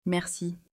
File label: "Merci", French